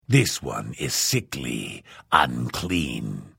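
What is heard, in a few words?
Hello, Vo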